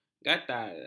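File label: "gatal", Malay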